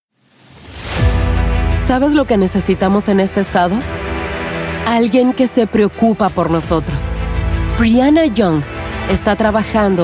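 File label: POLITICAL Spanish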